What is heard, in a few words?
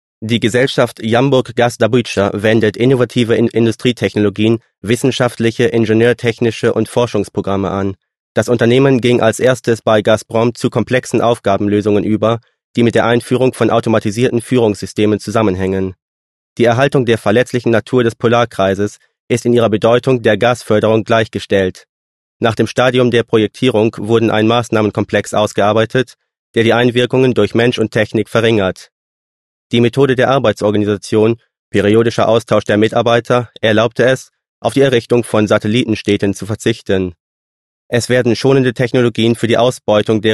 german male